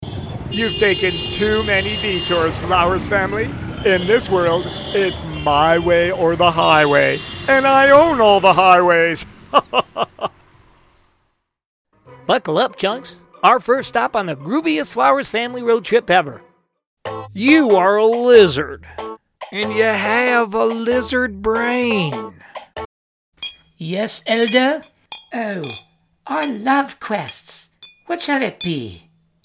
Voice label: Characters, Male voices